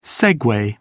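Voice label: "segway", British English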